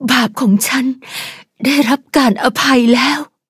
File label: level up, th; Cookie Run